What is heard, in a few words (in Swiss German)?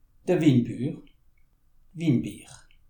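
"winbur", Alsatian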